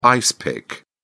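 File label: "ice pick", British English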